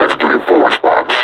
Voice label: announce attack, combine suppressor; Half Life Alyx